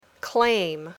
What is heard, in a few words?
"claim", English